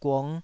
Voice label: "gung5", Taiwanese syllable

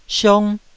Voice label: "siong1", Taiwanese

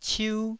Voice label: "ciu1", Taiwanese

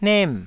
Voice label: "nem4", taiwanese

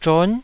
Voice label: "zhon9", Taiwanese syllable

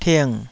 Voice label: "ting3", taiwanese Syllables

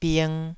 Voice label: "bing2", taiwanese syllable

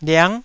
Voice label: "liang9", Taiwanese syllable

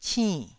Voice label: "cinn4", Taiwanese

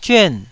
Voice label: "zyuan4", taiwanese

